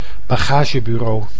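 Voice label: "bagagebureau", Dutch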